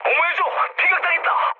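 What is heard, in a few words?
Korean aircraft damaged mood medium; War Thunder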